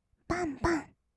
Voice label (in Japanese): "ばんばん", kawaii voice; 1200 kawaii voice!!; 色々なワンショット